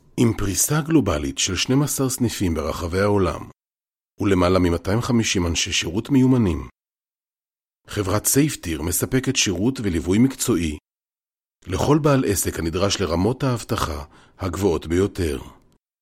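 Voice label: Hebrew, male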